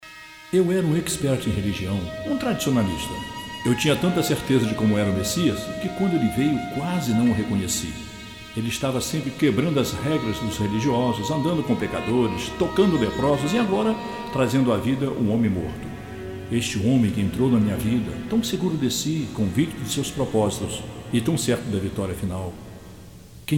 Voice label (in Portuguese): Narração